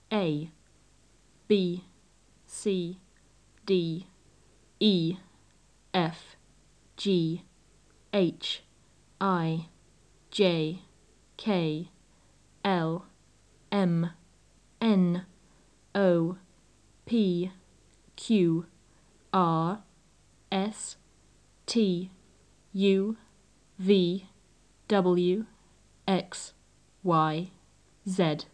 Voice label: abcdefghijklmnopqrstuvwxyz, english

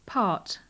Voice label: "part", English

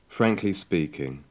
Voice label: frankly speaking, english

